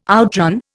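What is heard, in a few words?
"outran", Indian English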